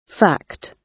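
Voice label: "fact", British English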